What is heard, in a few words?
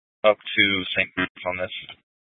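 Police Dispatch, scanner audio recording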